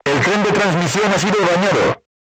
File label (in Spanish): voice message horizontal aiming drive damaged, Spanish